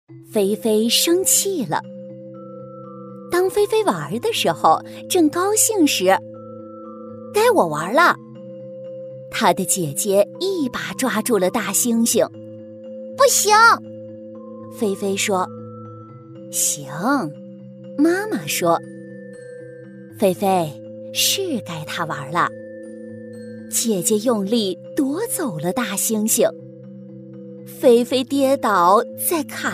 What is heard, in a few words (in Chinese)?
女 绘本故事; 《菲菲生气了》